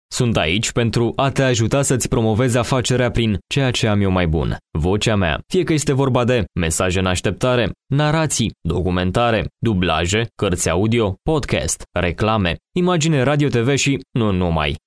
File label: locutor rumano romanian voiceover; locutor de Rumanía